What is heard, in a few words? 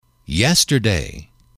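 "yesterday", male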